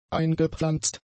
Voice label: "eingepflanzt", German; adjectives

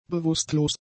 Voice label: "bewusstlos", German; adjectives

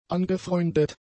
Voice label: "angefreundet", German; adjectives

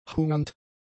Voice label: "hungernd", German; adjectives

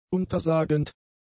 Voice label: "untersagend", German; adjectives

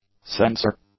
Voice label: "censor", English; nouns

